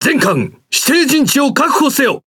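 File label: Speech Quick Commands Enemy Base, Japanese; World Of Warships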